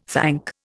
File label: "thank", Indian